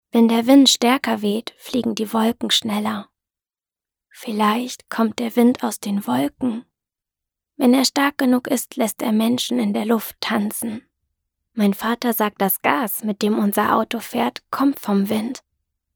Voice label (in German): werbung deutsch